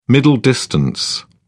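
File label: "middle distance", British English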